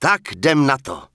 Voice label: Human Male Standard Greetings; NPC; wowpreklad; czwow